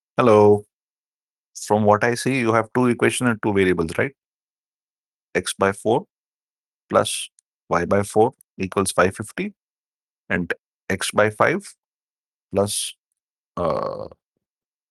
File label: voice message